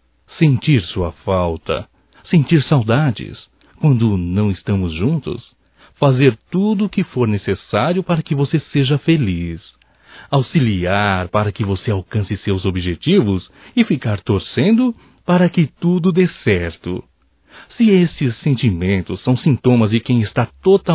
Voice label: DECLARAÇÃO, Telemensagens, Românticas, masc

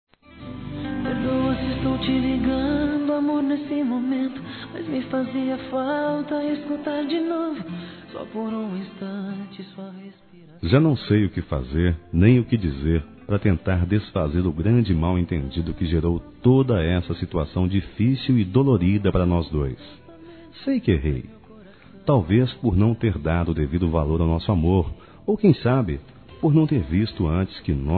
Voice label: RECONCILIAÇÃO M; Telemensagens

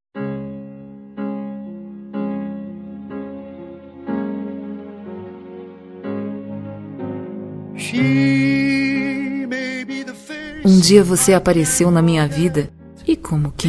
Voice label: ROM DECLARACAO FEM; Telemensagens; Declaração de amor; Telemensagens 2017

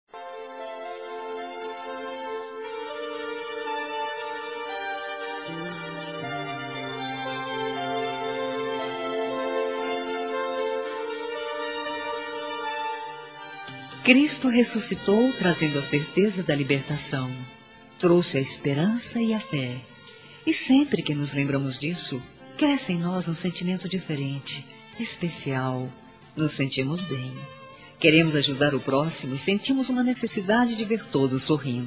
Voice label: FELIZ PASCOA NEUTRO F, fem; Telemensagens

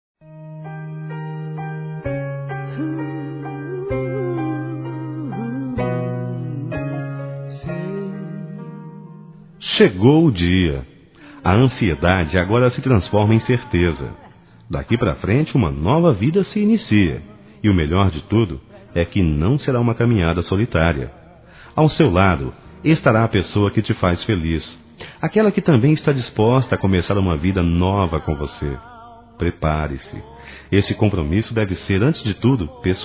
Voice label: Nosso Casamento Masculino; Telemensagens; Parabenização

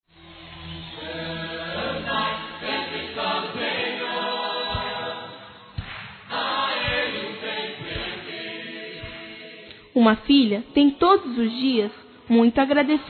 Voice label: Aniversário Mãe Infantil evangelico Feminino; Telemensagens

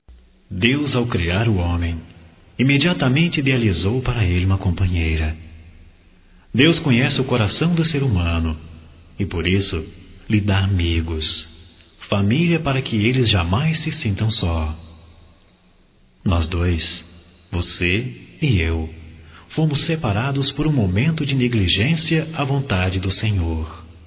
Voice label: Restabelecimento Amizade Masculino

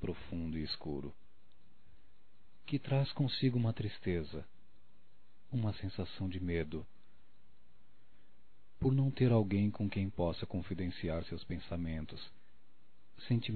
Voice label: Solidão Masculino, Telemensagens; Românticas

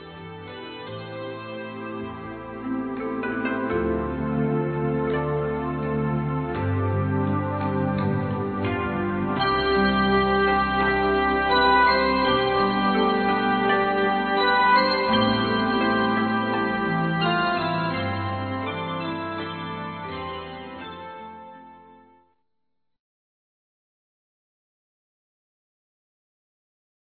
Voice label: NATAL NEUTRO M; Telemensagens; Natal e Ano novo

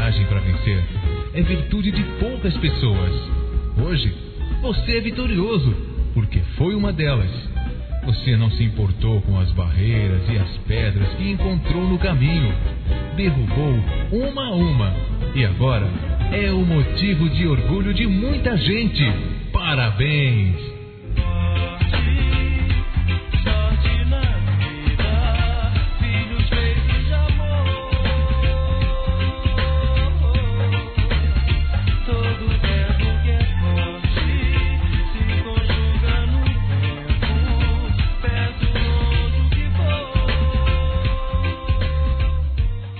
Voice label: VITÓRIA M; Telemensagens; Otimismo; masc

